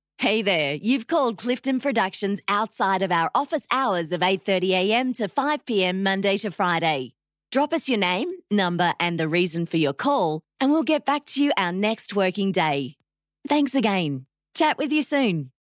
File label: Voicemail Greeting